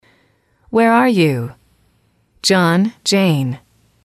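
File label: "where are you", English, female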